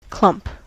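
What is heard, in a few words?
"clump", American English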